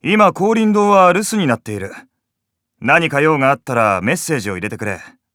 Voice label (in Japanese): 留守電; 携帯用着ボイス